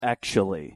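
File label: "actually", English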